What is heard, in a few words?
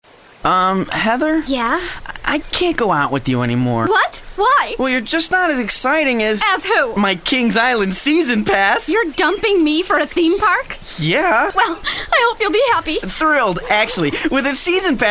Teenager